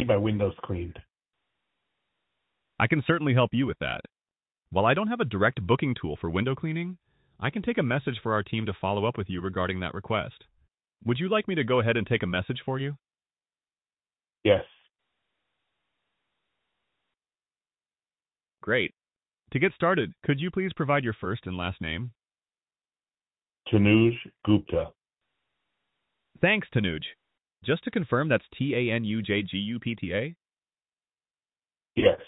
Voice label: phone line test call